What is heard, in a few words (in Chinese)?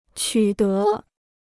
"取得", Chinese